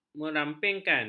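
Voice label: "merampingkan", Malay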